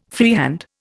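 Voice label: "freehand", Indian English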